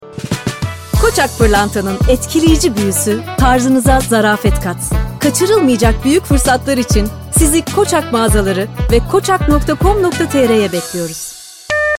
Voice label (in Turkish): reklam karizmatik enerjik; kocak